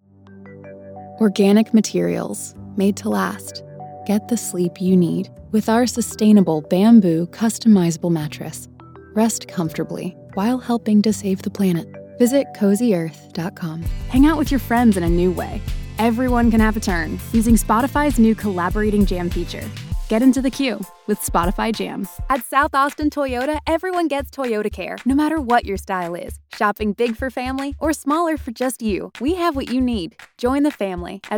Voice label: Commercial Demo